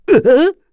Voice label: Vox, kr; Bernheim; kingsraid